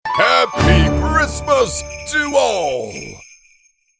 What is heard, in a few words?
Happy christmas to all jingle santa